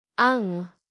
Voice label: "ang", Tagalog